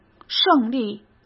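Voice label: "胜利", Mandarin Chinese; chinese flashcards; hsk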